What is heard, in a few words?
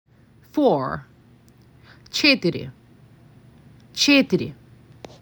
MAC 6, macedonian phrasebook; the talking macedonian phrasebook